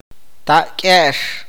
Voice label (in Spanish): abuelo paterno, awakateko